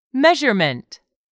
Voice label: "measurement", English; 3000 words

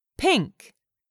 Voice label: "PINK", English; 3000 words

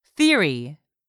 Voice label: "theory", english; 3000 words

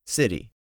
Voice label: "city", english; 3000 words

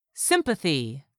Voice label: "sympathy", English; 3000 words

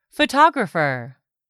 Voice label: "photographer", English; 3000 words

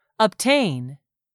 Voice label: "obtain", English; 3000 words

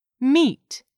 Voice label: "meet", English; 3000 words

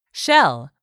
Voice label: "shell", English; 3000 words

